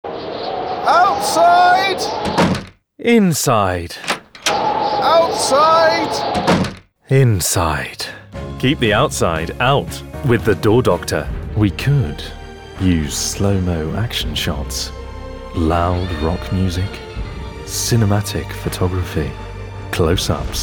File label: Commercial